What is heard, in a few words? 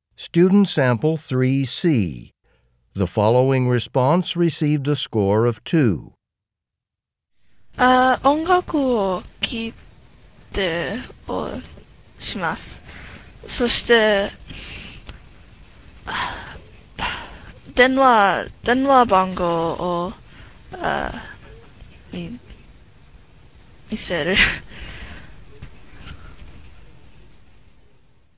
japanese conversation